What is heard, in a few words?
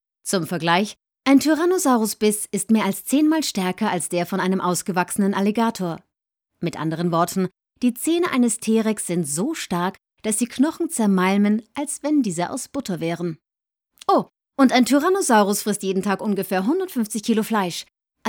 eLearning